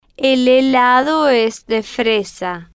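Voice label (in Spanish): el Helado Es De Fresa, Spanish, female, slow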